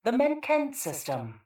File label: PA System